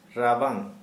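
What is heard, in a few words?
"cold", Santali, male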